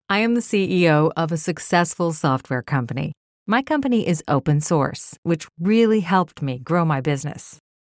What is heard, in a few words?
Confident credible corporate elearning